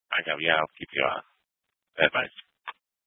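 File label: Troop 2A Dispatch, scanner audio recording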